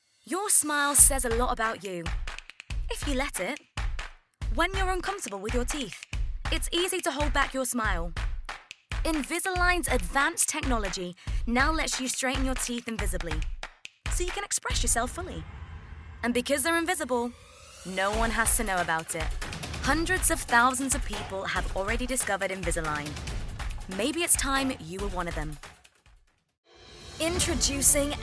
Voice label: female English northern and RP accents commercial compilation; english southern accents